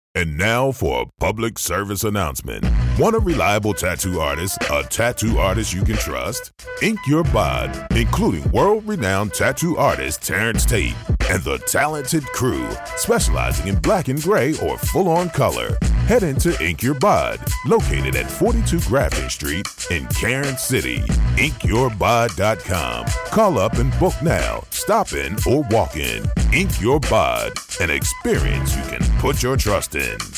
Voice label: english american tv shows